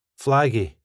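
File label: "flaggie", Scottish English; スコットランド英語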